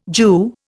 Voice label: "Jew", Indian